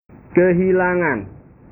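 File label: "kehilangan", Indonesian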